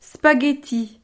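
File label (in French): "spaghetti", French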